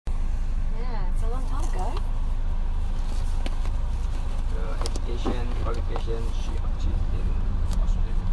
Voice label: Mandarin Singlish